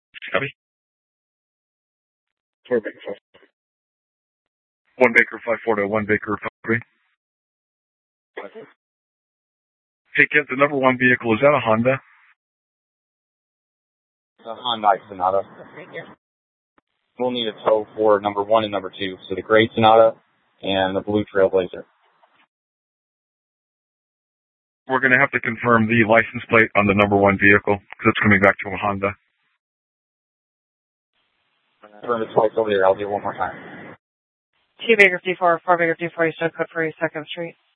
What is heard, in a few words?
Police Dispatch; TOPAZ Regional Wireless Cooperative (TRWC) - Mesa Simulcast; Mesa Police Department; 3 Red Mountain Dispatch